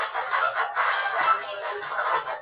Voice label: child's voice